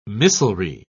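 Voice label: "missilry", British English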